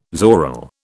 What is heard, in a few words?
"zoril", British